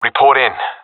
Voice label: request report, professional; vo; Counter Strike Model Pack; CS:GO